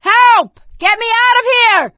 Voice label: call for rescue, survivor voice; source engine; left 4 dead 2; producer